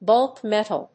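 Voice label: "bulk metal", English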